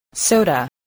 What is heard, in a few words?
"soda", English voice